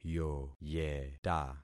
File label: "yoyeta", concat syllables; Affixing experiment